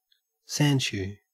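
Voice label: "sandshoe", Australian English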